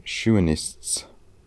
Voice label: "Shuenists", pronounciations; Fourth Way; Beelzebub